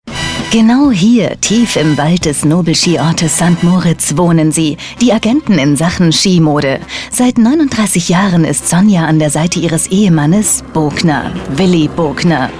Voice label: TV Feature Off Voice; Bogner; Boulevard